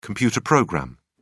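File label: "computer program", English, British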